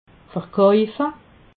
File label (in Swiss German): "verkaufe", alsace